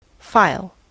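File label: "file", US English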